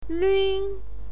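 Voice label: "lün", pinyin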